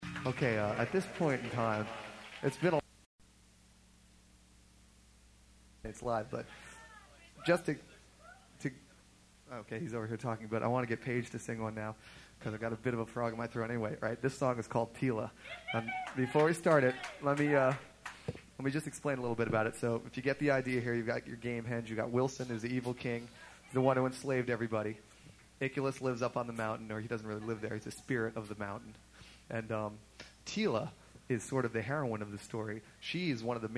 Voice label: Narration; Olympia, WA; North Shore Surf Club

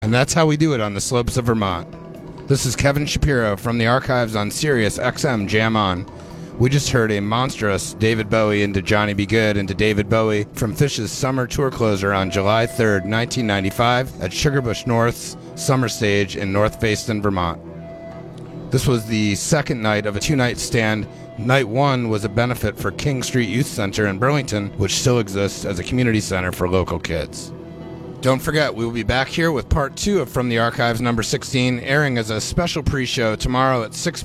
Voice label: radio banter; SPAC

